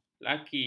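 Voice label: "laki", Malay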